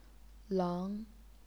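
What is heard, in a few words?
"leng", Chinese, second tone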